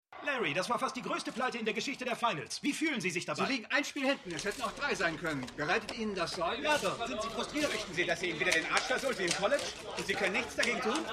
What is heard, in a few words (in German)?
Sportreporter Off Sportreporter Sportreporter; Winning Time